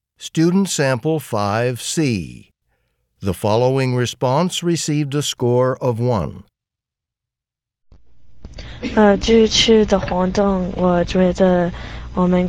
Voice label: chinese conversation

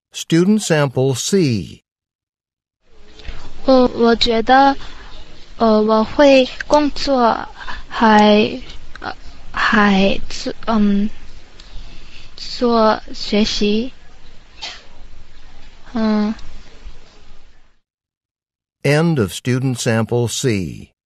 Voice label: chinese conversation

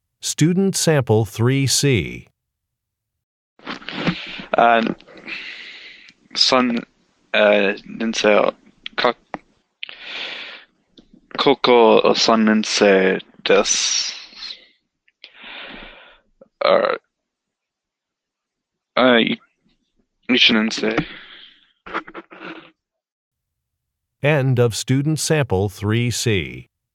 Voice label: japanese conversation sample; Conversation 3 Sample C

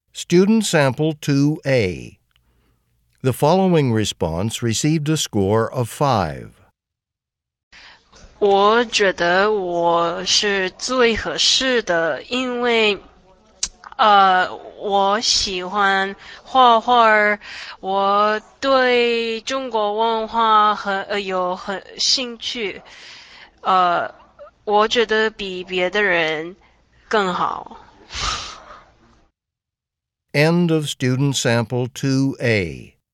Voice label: chinese conversation; Conversation 2 Sample A (Score of 6)

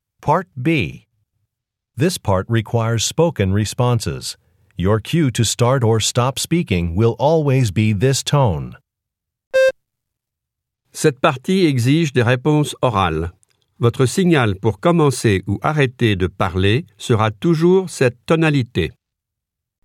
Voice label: french directions

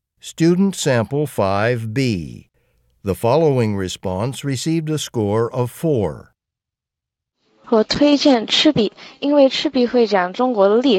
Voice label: chinese convo; Conversation 5 Sample B

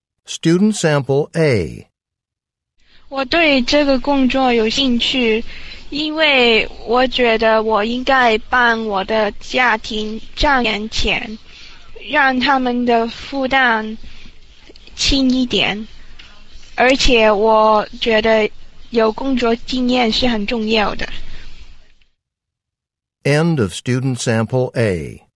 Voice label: Chinese conversation; Conversation 1 Sample A (Score of 6)

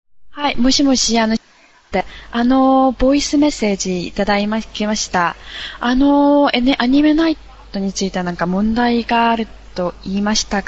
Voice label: Japanese Return Telephone Call; Return Telephone Call 1 Sample A Score 6

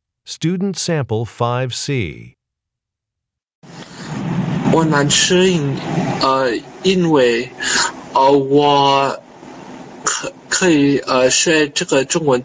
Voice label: chinese conversation

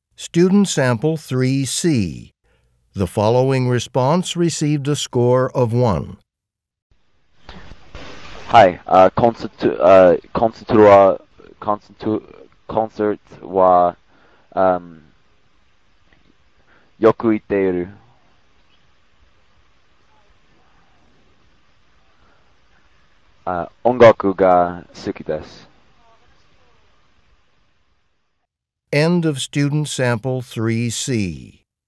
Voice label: japanese conversation

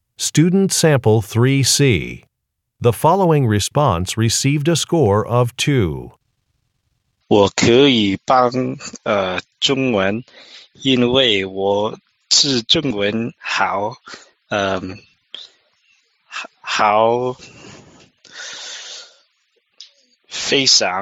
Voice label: chinese conversation

